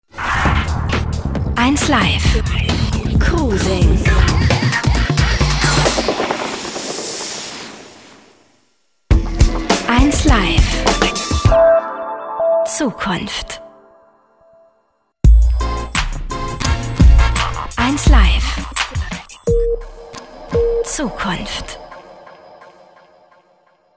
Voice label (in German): Werbung Neutral